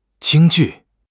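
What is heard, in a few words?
"Jing1 ju4", Chinese; HSK4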